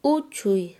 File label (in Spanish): "pequeño", Quechua Chanka